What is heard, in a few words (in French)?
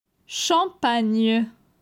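"Champagne", French; phonetique; la graphie gn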